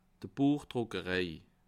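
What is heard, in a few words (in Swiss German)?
"buechdruckerei", Bas Rhin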